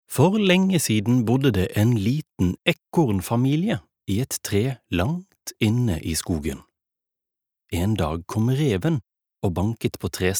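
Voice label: Norwegian childrens book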